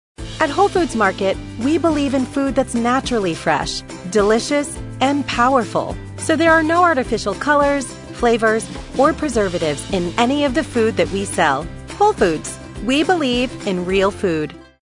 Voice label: Commercial; Whole Foods

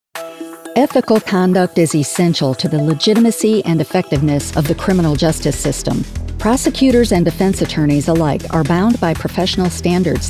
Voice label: Legal Narration

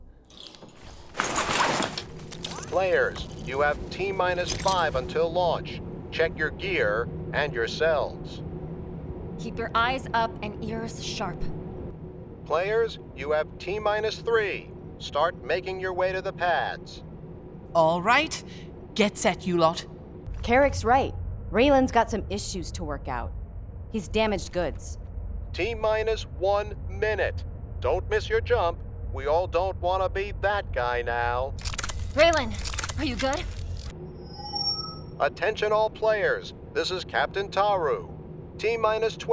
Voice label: Audio Drama; 48 Hour Audio Drama Festival; Parallax

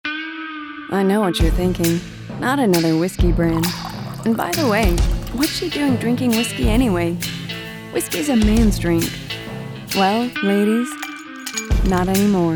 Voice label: Silky Sexy Conversational WHISKY; Vocal Styles; Silky, Sultry, Conversational

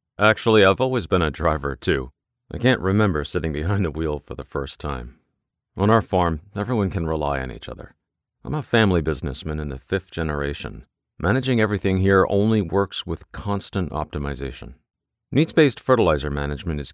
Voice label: Agriculture, conversational, down to earth; K+S Agriculture

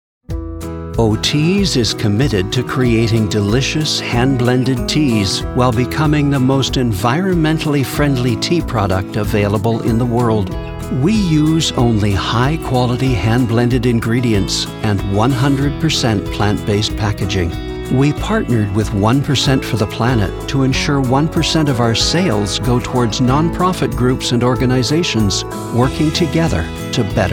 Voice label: CORPORATE MIX

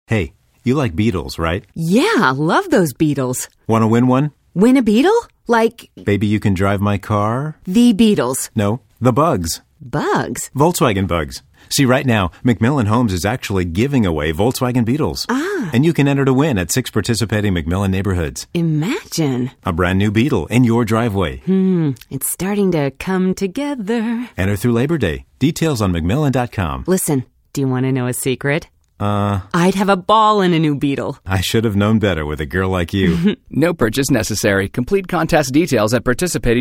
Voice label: Male and Female voiced spot

